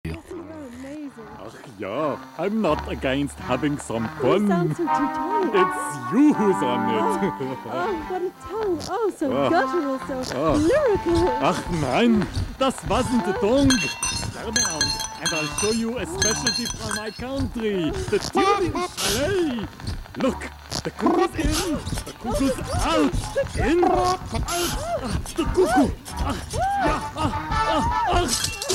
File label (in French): Dessin anime en anglais; voix-off